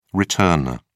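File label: "returner", British English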